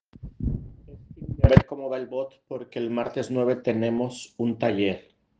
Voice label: Voice message